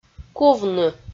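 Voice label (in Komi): "кувны", Komi-Zyrian, female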